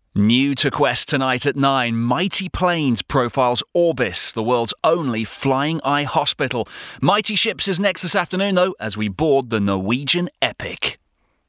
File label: continuity example; Discovery Quest